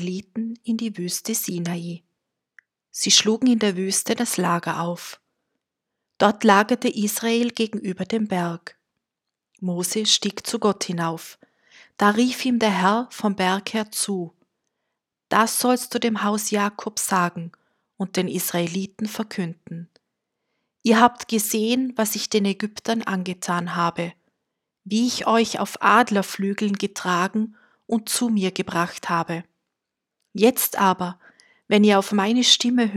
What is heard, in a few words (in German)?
Lesung